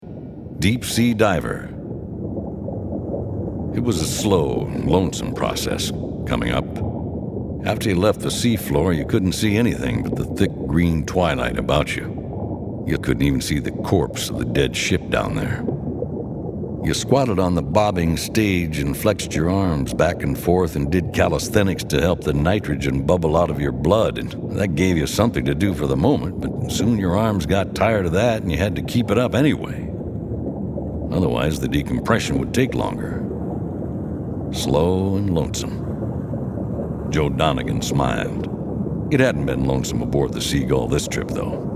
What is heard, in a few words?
Male, promos